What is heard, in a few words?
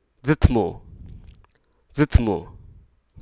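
Hruso Aka; eavesdrop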